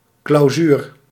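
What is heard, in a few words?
"clausuur", Dutch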